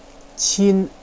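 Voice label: "qin", Chinese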